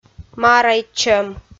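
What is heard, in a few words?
"марайтчӧм", Komi, female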